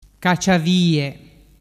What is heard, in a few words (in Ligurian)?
"cacciavie", dialettu